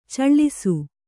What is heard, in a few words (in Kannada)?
"ಚಾಳೀಸ", Kannada